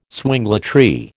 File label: "swingletree", American